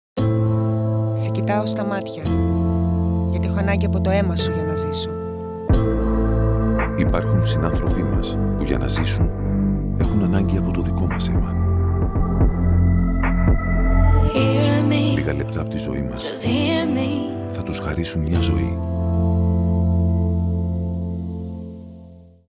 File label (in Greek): ΑΙΜΟΔΟΣΙΑ RADIO SPOT